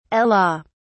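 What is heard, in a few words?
"ela", British English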